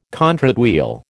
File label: "contrate wheel", American